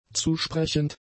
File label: "zusprechend", German; adjectives